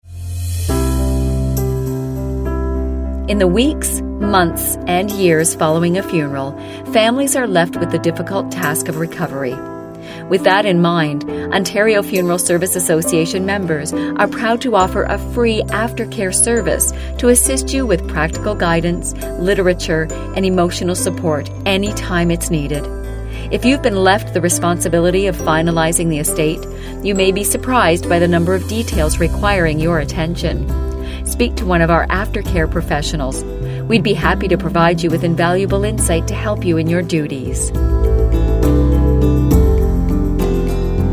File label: On Hold Message; OFSA